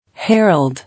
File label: "Herald", English